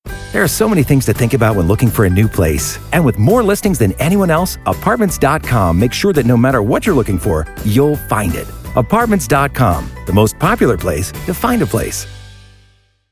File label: commercial apartments